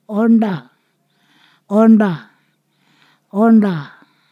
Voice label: "where", Ponosakan